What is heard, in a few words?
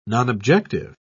"nonobjective", en uk